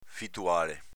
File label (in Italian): "fituale", dialetto veneto; s.m. fittavolo